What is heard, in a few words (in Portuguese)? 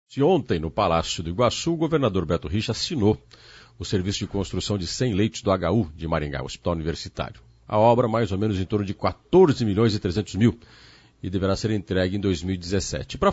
Entrevista AO VIVO